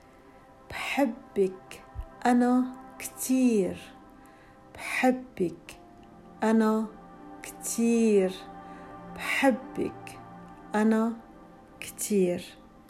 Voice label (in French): je t aime femme libanais